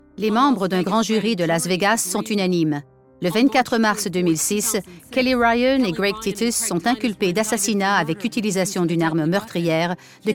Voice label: SURIMPRESSION VOCALE Voix journaliste d'enquete, Voix posée, journaliste d'enquête

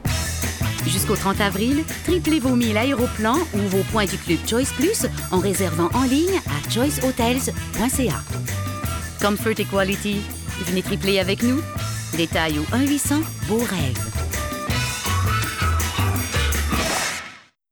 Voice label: PUB voix annonceur; Choice Hotels

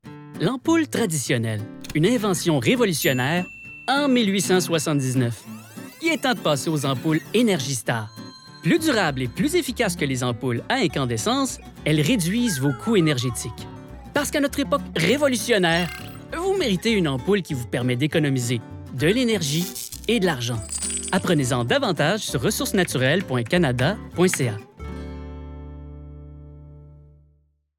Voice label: Publicite

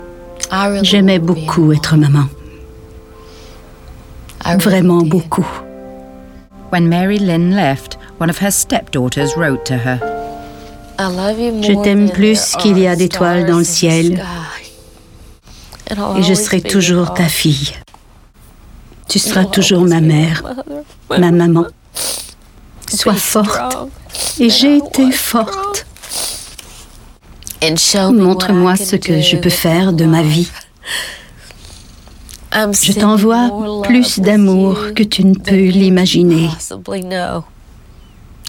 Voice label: SURIMPRESSION VOCALE Voix fragile emotive

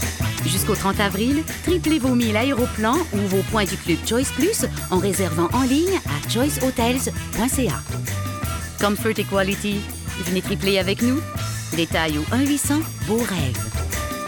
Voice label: PUB ANNONCEUR, Voix amicale, souriante et accueillante; Choice Hotels

